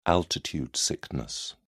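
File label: "altitude sickness", British English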